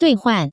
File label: "duihuan", Taiwan Chinese